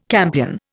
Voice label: "campion", Indian

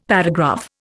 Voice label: "paragraph", Indian; Dictionary

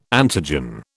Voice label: "antigen", British

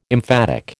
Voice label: "emphatic", American

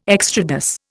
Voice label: "extrados", Indian

